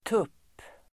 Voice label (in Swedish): "tupp", Swedish